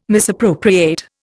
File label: "misappropriate", Indian